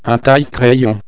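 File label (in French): "taille crayon", French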